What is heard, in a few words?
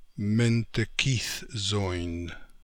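"Mentekithzoin", pronunciations; Fourth Way; Beelzebub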